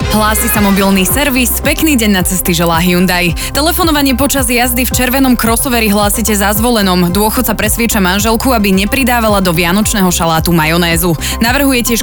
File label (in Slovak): vianoce radio; hyundai